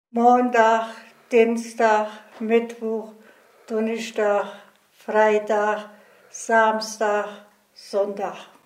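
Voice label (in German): wochentage, O-Ton Hunsrück; Raversbeuren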